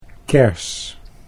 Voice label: "kers", Dutch

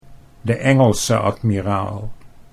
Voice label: "de Engelse Admiraal", Dutch

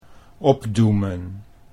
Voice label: "opdoemen", Dutch

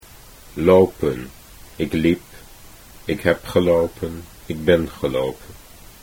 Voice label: lopen ik liep, Dutch

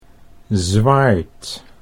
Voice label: "zwaard", Dutch

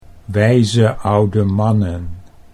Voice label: "wijze oude mannen", Dutch

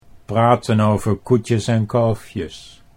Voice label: "praten over koetjes en kalfjes", Dutch

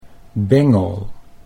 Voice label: "bengel", Dutch

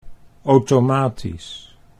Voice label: "automatisch", Dutch

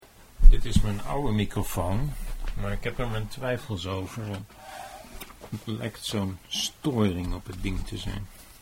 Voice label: oude microfoon, Dutch

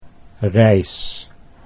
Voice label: "reis", Dutch

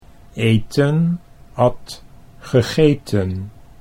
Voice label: eten at gegeten, Dutch

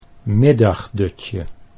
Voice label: "middagdutje", Dutch

